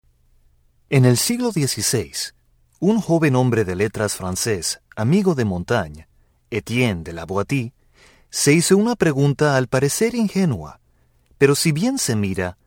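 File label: spanish male narration; voice demos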